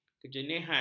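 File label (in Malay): "kejernihan", Malay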